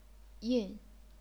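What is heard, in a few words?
"yin", chinese pinyin, fourth tone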